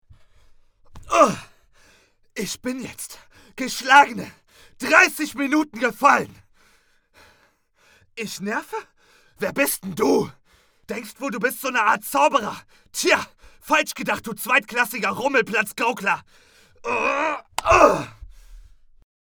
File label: Emotion